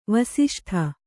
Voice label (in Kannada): "ವಾಸಿಷ್ಠ", Kannada